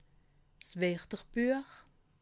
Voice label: "werterbuech", alsace

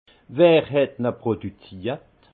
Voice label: "wer het na produkziert", alsace; vin; produit par qui

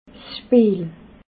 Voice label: "spiel", alsace

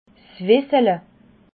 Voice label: "wiesele", alsace

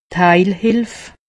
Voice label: "heilhilf", alsace; medecine

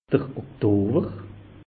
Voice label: "oktower", alsace; meteo

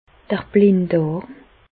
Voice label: "blinddarm", Bas Rhin; medecine; appendice

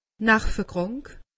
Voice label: "nervekrank", alsace; medecine

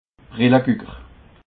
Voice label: "brellegueger", alsace

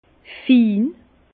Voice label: "fin", Bas Rhin

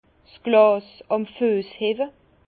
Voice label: "glas", Bas Rhin; vin

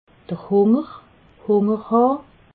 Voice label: "hunger", alsace

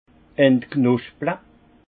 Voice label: "entknosple", alsace; vin; ébourgeonner

